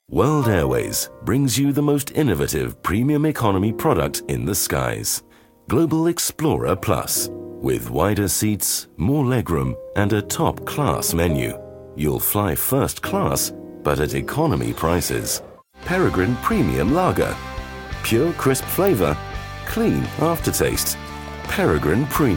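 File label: British English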